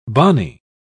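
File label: "bonnie", British English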